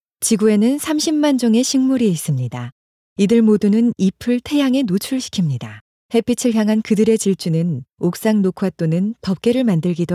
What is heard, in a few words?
korean, vo